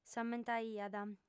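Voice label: "s'ammentaiat", female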